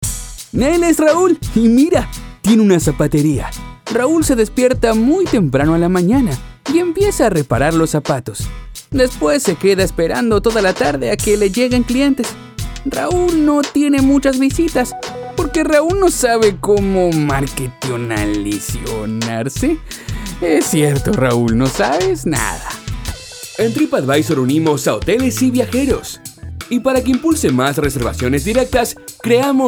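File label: Web Sales Internet Latin Spanish Español Neutro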